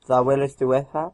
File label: goodbye, Cornish